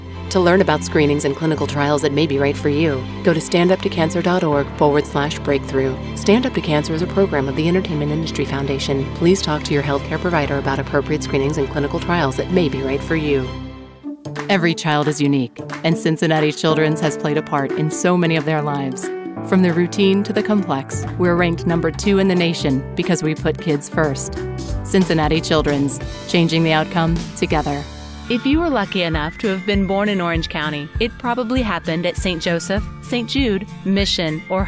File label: Warm, Commercial